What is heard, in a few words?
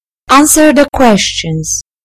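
"answer", British English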